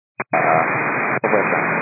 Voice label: 122 925MHz, scanner radio